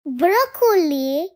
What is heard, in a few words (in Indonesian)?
Suara Ceria Anak Brokoli